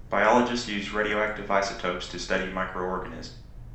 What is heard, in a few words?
room speech 8kHz